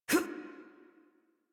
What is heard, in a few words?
Adult Operator Jump, en; Warframe; New War